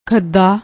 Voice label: "khadaa", Punjabi